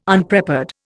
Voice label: "Unprepared", Indian